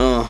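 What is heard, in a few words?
"uh", male; ooh ahh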